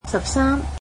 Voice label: "thirteen", Cantonese